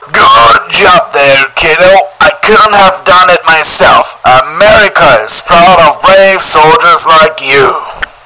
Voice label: radio; murica